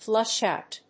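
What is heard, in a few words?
"flush out", English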